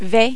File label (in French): "v", French